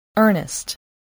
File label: "earnest", English